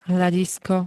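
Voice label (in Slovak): "hľadisko", Slovak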